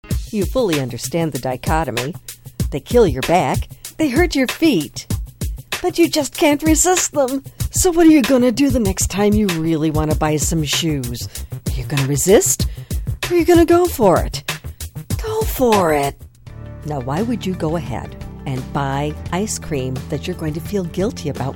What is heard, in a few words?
VO Commercial